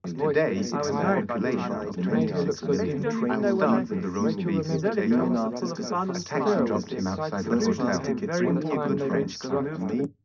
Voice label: male mix; SiSEC10; underdetermined

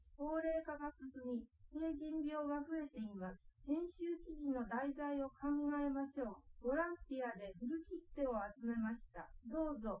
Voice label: female liverec; SiSEC08; SiSEC underdetermined

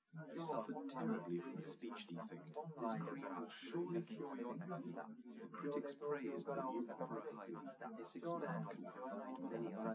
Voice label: test male live rec; SiSEC08; SiSEC underdetermined

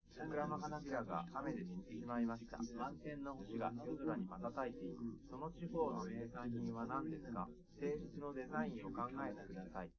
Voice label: male live rec

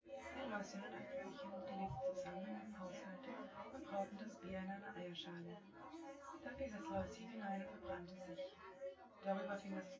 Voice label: female

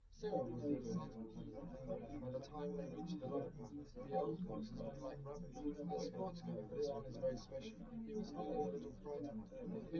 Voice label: male liverec; SiSEC10; underdetermined

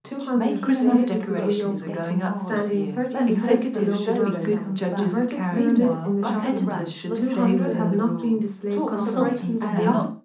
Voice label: female mix; SiSEC10; underdetermined

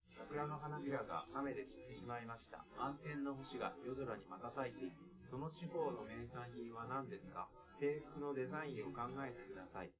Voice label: male live rec 1m sim; SASSEC07

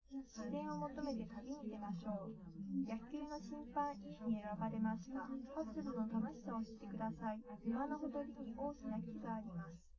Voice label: female

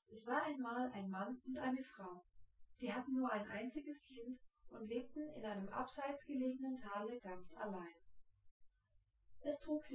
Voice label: female synthconv 250ms 5cm; SiSEC08; SiSEC underdetermined

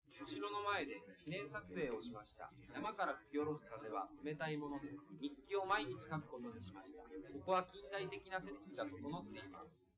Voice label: male liverec; SiSEC11; underdetermined

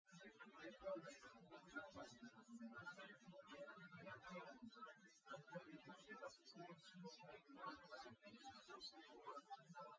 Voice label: test male synthconv 130ms 5cm src; SiSEC08; SiSEC underdetermined

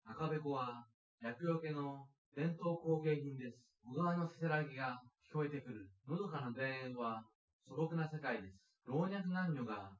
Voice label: male synthconv 250ms 5cm

